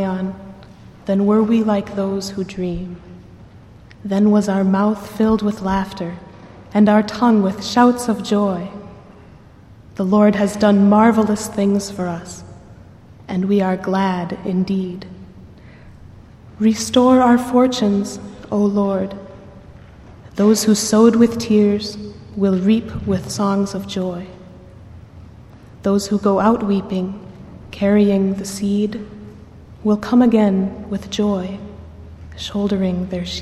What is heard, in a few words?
psalm 126 spoken